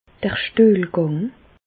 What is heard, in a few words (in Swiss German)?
"stuehlgang", Bas Rhin; medecine; selles